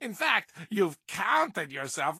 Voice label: English Voice